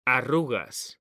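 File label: "arrugas", Spanish, male; mekanta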